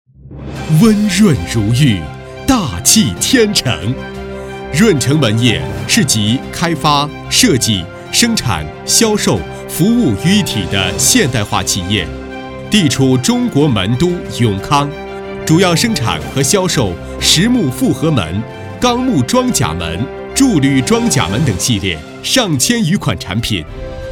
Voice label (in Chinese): 广告, 国语男声配音; 润成木门